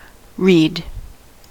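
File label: "read", American English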